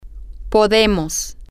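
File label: we can, Spanish, female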